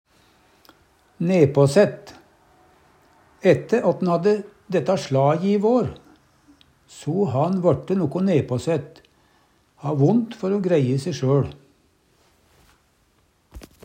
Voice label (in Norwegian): nepasaett, Numedalsmål